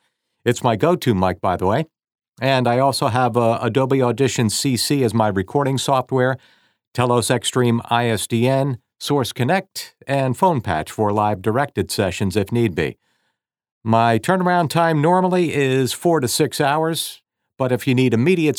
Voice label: studio sample